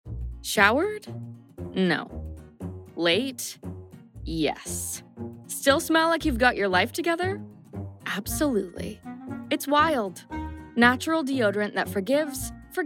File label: Commercial Voice